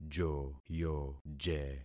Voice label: "joyoje", concat syllables; Affixing experiment